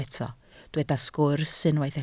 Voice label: "sgwrs", Welsh